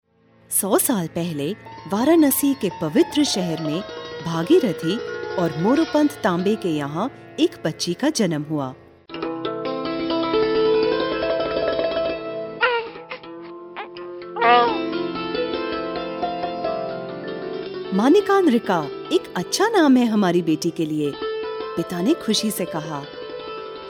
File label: hindi female hindi